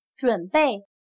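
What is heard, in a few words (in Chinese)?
"准备" Mandarin Chinese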